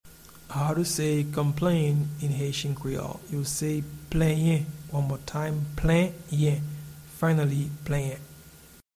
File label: Complain in Haitian Creole Plenyen, audio pronunciation; “Complain” (English) translates as “Plenyen” in Haitian Creole